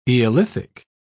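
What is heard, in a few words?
"eolithic", English, British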